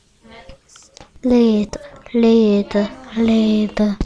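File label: "lead", spoken word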